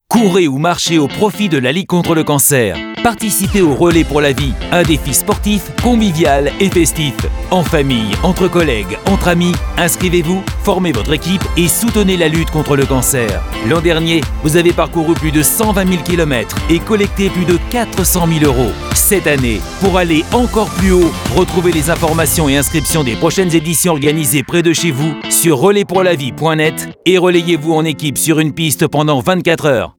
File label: radio